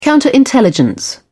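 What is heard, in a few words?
"counterintelligence", British English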